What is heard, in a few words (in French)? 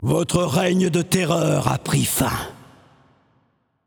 Announcer French Spree End